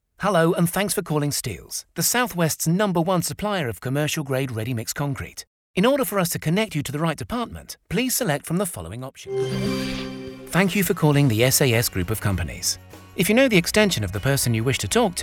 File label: vo telephony